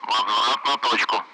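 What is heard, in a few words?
Speech, Russian; Allied Flight; DCS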